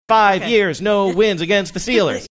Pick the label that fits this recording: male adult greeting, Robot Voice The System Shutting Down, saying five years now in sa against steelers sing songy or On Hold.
saying five years now in sa against steelers sing songy